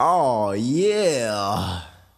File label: "ah yeah", Voice